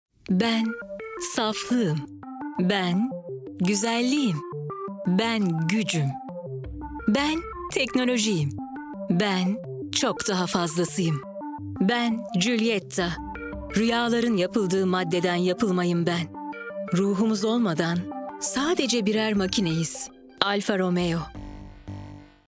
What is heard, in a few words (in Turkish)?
kurumsal imaj, seslendirme